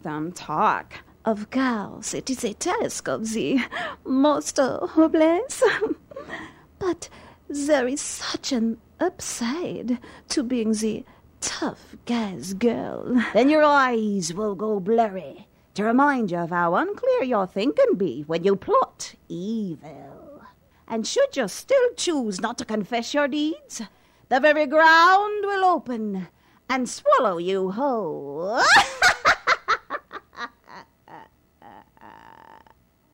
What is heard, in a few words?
character